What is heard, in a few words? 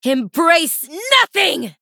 Female Human Attack